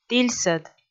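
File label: "тільсӧд", Komi, female; audio dict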